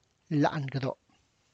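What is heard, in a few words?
inside the church, Tlacochahuaya Zapotec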